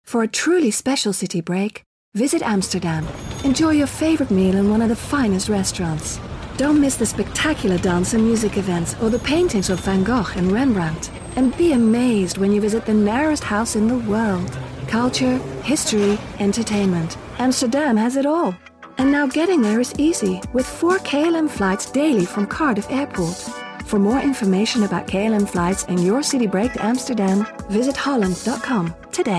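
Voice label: Dutch, Accented English; GoLocalise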